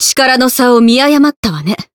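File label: win, Japanese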